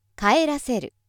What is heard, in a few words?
female